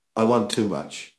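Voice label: Voice Acting, Spanish, male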